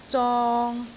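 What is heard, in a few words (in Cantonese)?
"zong3", Cantonese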